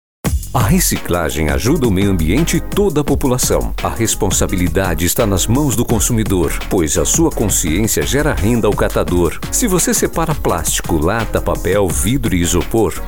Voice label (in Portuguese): locutor